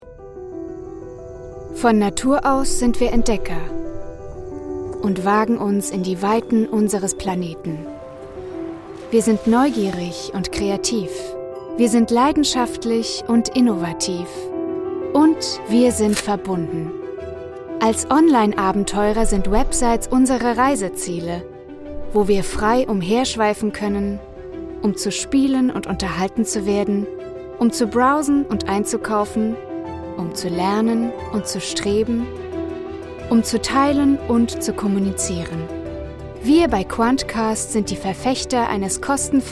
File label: german corporate videos